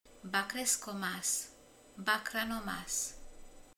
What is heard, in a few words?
"bárányhús", romani